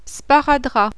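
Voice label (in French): "SPARADRAP", French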